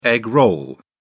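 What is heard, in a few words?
"egg roll", British English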